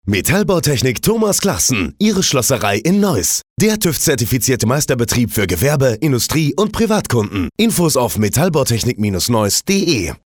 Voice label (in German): Radiospot